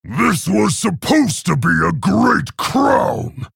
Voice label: male orc greetings